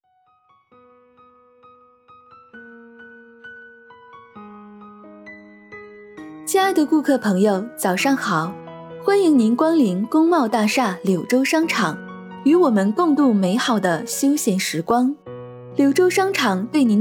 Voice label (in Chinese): 商场早安提示音; 柳州